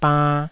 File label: "bann2", taiwanese syllables; 台語音節發音檔案